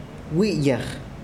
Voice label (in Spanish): cabello, mam